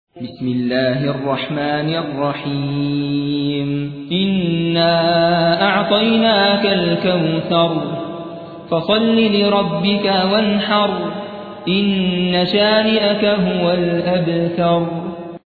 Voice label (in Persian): ترتیل سوره کوثر